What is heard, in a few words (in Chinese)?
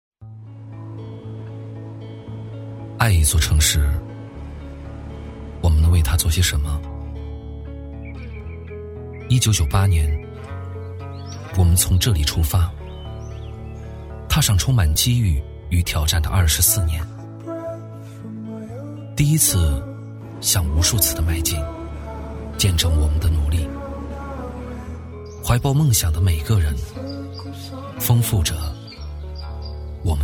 男 走心 走心叙述; 质感磁性